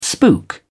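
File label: "spook", British English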